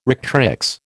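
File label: "rectrix", American English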